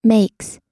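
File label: "makes", US English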